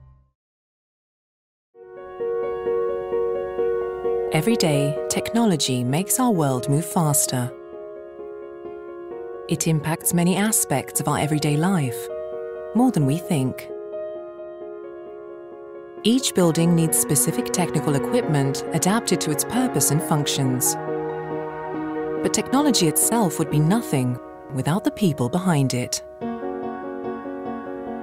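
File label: British English, female, medium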